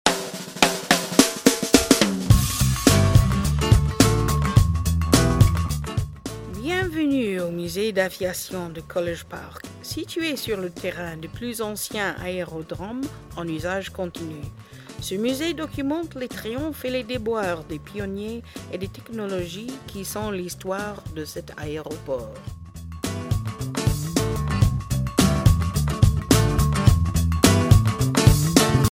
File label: French Intro With Music; pgparks podcasts